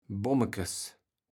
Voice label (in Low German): "Bommekes", kaevels platt